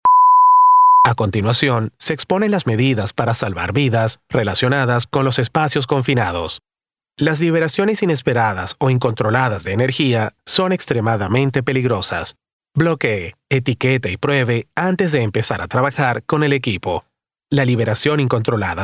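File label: E learning Explainers Narration Spanish Latin American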